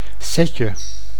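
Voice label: "setje", Dutch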